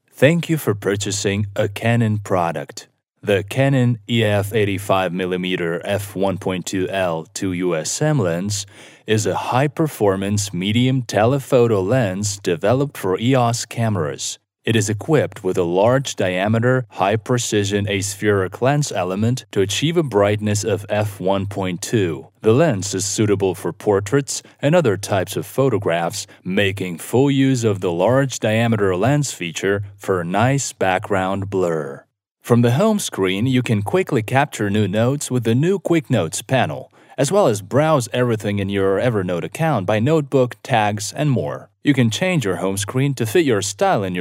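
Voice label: English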